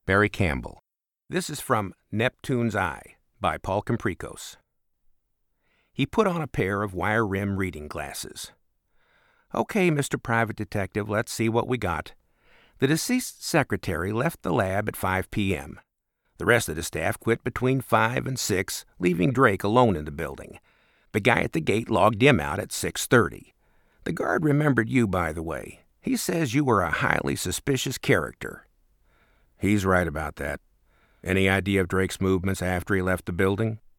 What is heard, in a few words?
Audiobook Dialog, Voice Actor; Short / Scene with Dialog